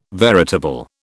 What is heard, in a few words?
"veritable", British